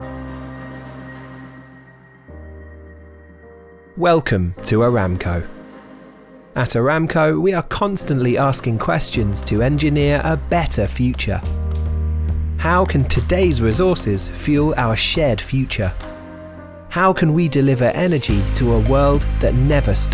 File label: Call-waiting example with VO; Aramco; sonic